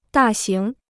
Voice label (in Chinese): "大型", Chinese